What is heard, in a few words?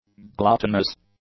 "gluttonous", english